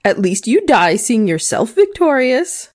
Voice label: Female Constable combat taunt; SyrinScape; Missing Magistrate